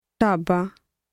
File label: wayside restaurant, punjabi; English: wayside restaurant